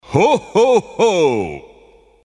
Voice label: christmas kit santa ho ho ho